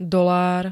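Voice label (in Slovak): "dolár", Slovak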